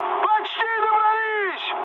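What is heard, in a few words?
Russian near waypoint mood high, speech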